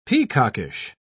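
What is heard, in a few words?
"peacockish", British English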